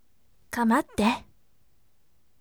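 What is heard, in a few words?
voice, firm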